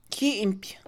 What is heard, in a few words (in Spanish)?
tragar, Mixe, Mujer; Comida; INALI